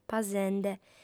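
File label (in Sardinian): "pasende", female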